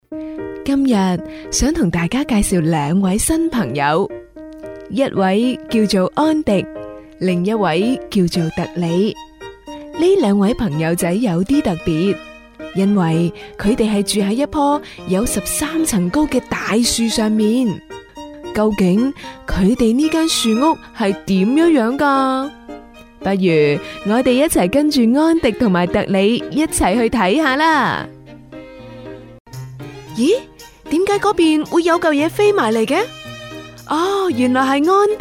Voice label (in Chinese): 粤语儿童故事